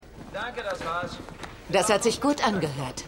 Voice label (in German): Aufnahmeleiter, Stimmproben; LO SVU